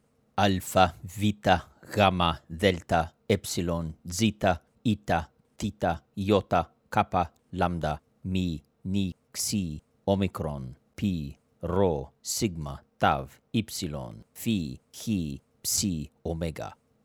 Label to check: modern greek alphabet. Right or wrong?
Right